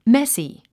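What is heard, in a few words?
"messy", English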